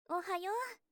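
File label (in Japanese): "おはよ", Japanese; 目覚ましボイス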